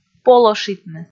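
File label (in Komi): "полошитны", Komi, female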